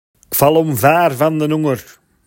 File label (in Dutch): Beurgeraat Borgerhout